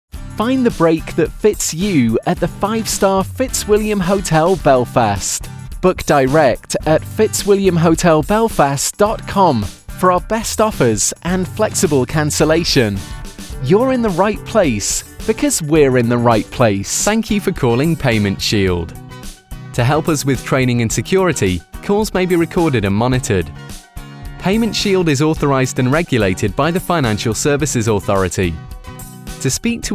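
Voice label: IVR on hold showreel, Male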